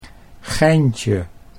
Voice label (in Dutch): "geintje", Dutch